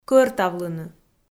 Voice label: "кӧртавлыны" Komi

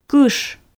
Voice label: "кыш" Komi

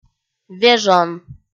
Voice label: "вежон" kpv f

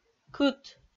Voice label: "кыт" Komi

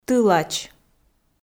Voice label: "тылач", Komi

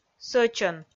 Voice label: "сӧчӧн", Komi

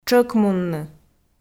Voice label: "тшӧкмунны", Komi

